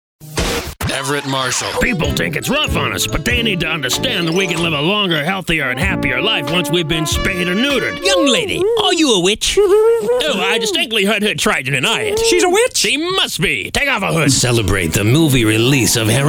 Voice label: Character VO; voiceover